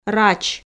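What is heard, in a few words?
"рач", Komi, female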